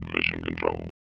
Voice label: ELECTRO MALE 140 A, Vocals

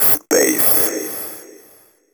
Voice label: techno male 140; Vocals

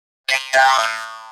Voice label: VOICEFX TEKNO 140; Techno2

